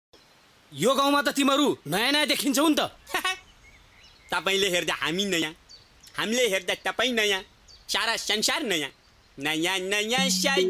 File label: Nepali tiktok buda voice